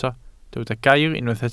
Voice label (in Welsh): "gair", Welsh